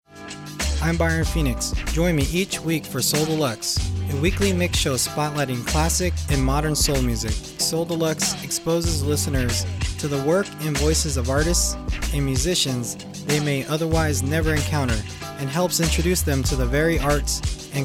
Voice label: generic promo